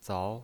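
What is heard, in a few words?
"zao" Mandarin Chinese pinyin voice, second tone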